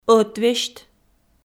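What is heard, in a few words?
"ӧтвесьт", Komi, female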